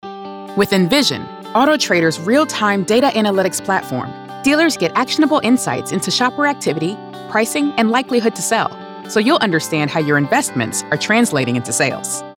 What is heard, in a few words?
B2B Sales Friendly Software Explainer Corporate Narration; nVision